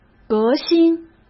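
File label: "革新", Mandarin Chinese; chinese-flashcards; hsk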